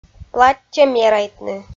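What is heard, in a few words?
"платтьӧ мерайтны", Komi, female; audio dict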